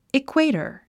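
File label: "equator", English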